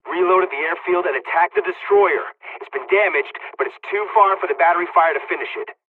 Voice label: dlg, hungarian; War Thunder

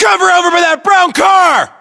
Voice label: order cover car brown, us

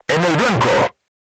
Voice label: voice message direct hit, crew tanks spanish

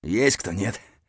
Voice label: mutter; Escape from Tarkov

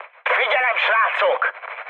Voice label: near waypoint, mood high, speech hun; War Thunder

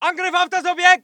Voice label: voice ger infantry; Red Orchestra 41-45

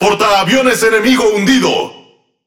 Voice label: Speech Destroy Enemy Aircarrier, Languages ES; World Of Warships

